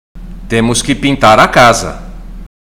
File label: Portuguese Listening; Portuguese Test